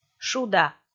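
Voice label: "шуда", Komi, female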